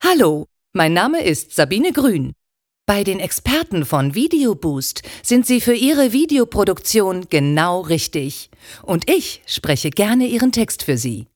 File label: Voiceover